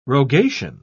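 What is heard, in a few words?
"rogation", British English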